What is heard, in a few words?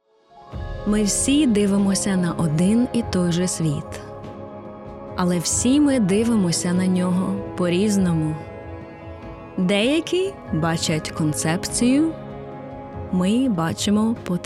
female ukrainian commercial; audi; devine voices